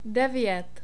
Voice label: "devet", Czech; numbers

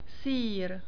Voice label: "syr", Czech; long vowels

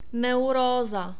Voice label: "neuro", czech; diphthongs

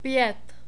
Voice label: "pet", Czech, numbers

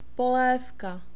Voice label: "polev", Czech; long vowels

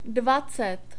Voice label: "dvacet", Czech; numbers

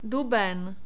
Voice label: "duben", czech

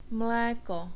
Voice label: "mleko", Czech; long vowels

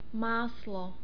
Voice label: "maslo", Czech; long vowels

